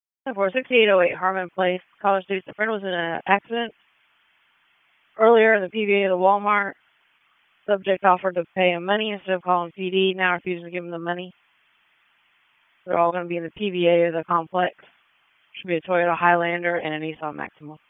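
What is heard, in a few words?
Police Northeast District Dispatch; Raleigh; wake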